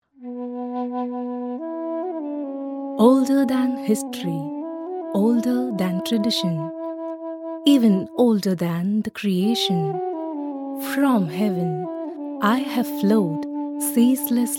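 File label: documentary english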